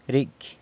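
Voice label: "ricy", there, San Lucas Quiaviní Zapotec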